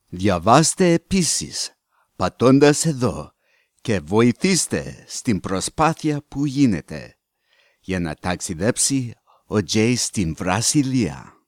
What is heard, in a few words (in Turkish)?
Yunanca Erkek, seslendirme; erkek sesler